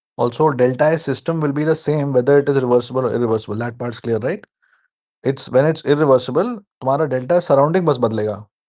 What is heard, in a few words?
voice message